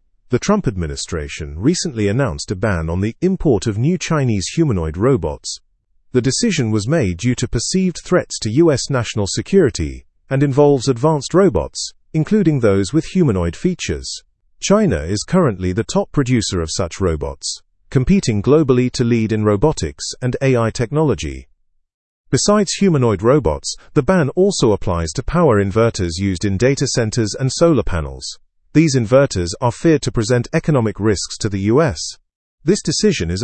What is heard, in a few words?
learn english through news upper intermediate; us ban on chinese humanoid robots explained